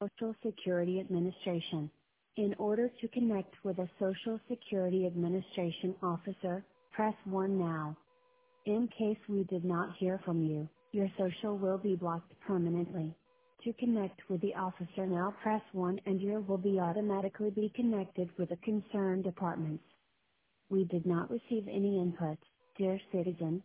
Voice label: voicemail